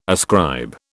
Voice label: "ascribe", British